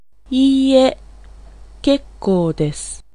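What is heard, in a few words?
Japanese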